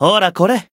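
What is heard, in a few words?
heal, Japanese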